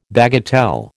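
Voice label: "bagatelle", American English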